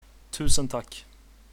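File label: thanks, Swedish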